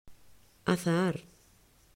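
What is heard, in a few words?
"azahar", Spanish; mausschool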